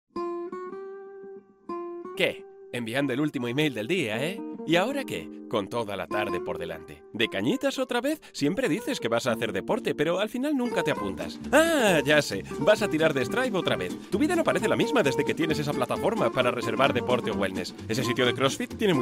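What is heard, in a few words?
European Spanish, Corporate; GoLocalise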